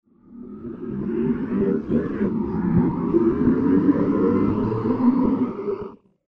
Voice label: ghost mumble